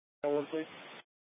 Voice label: Light Rail Emergency, scanner